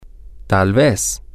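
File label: maybe, Spanish, male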